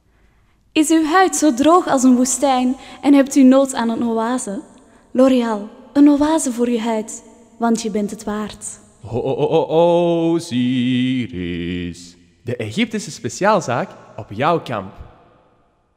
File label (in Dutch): Reklame